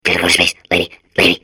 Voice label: babble; portal2